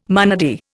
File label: "monody", Indian